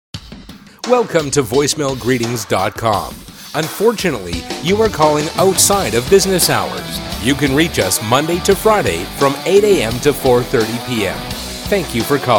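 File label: Canada, male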